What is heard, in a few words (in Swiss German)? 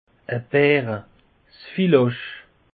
"bare", alsace; peche